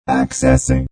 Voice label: Robot Voice Accessing; Robot Sound Effects

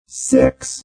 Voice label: Robot Voice "0"

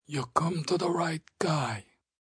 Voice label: Come To Right Guy, Mafia, gangster; Talking; VoiceBox

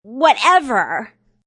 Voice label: Young Lady Says Whatever; Talking Sound Effects

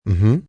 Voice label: Mm Hm Yes M, Reaction, male; Exclamation Sound Effects

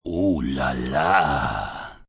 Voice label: Oo La La, Sexy, fun, male, deep voice

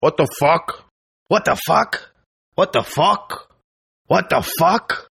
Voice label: Tough guy WTF English, Tough Hispanic Male Saying; "What The F?" In English; 4 Takes; Attitude Sound Effects

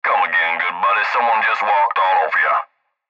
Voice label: Cb Trucker Radio; Cb Radio Male Come Again Good Buddy Someone Just Walked All Over Ya; Radio Voice; Blastwave

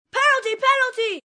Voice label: Penalty Penalty, Boo, 1 boy, 10 yrs, Booing; VoiceBox

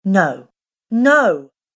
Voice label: Woman Says No Twice; Recorded Voice Sound Effects

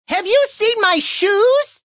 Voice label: Have U Seen My Shoes, Male; Talking

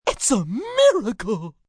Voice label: Its A Miracle, male; Talking Sound Effects

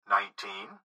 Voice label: Gps Voice, Male

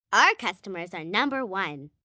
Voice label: Our Customers, Sales Pitch, 1 girl, 9 yrs; Talking

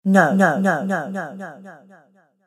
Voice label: Female Voice Says No With Delay; recorded voice

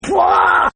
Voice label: Guy Says Pwaa, Exclamations; Partners In Rhyme

